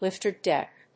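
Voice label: "lifter deck", English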